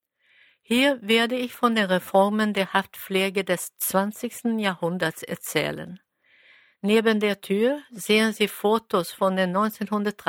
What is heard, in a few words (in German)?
cell, audioguider